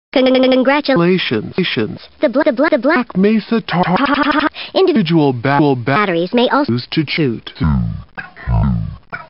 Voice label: tortured; sven; holo